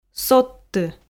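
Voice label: "содты", Komi, female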